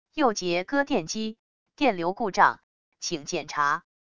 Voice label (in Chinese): 语音, 语音报警器